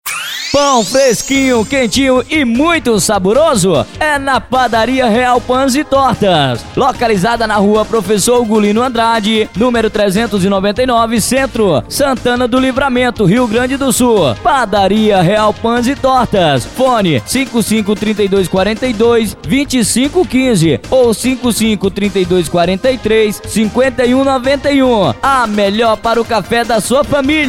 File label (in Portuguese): locutor padaria real paes e tortas